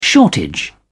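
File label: "shortage", British English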